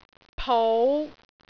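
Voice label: "pou5", Cantonese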